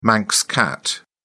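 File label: "manx cat", English, British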